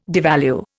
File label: "devalue", Indian